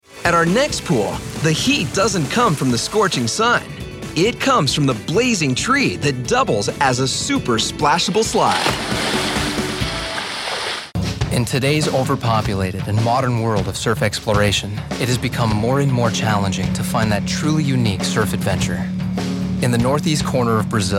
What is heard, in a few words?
american voice documentary